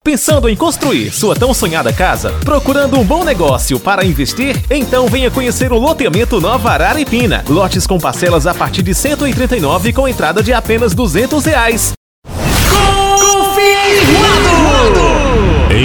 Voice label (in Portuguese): demo locucao brasil